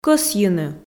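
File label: "кӧсйыны", Komi, female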